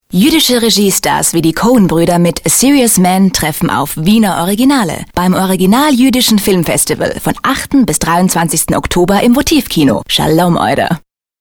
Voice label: spot; juedisches film festival

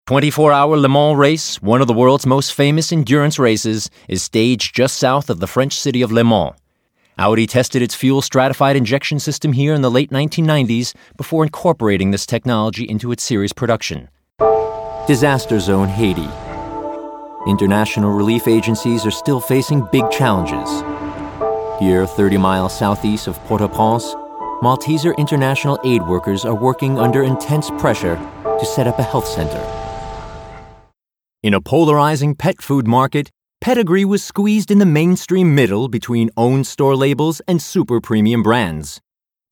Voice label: Sachtext Imagefilm

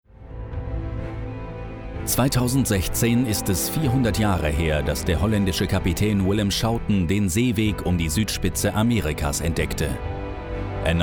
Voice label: trailer dokumentarfilm; kap horn

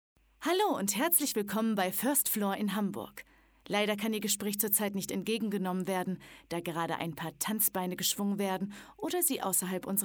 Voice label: Telefonansage; 1st floor

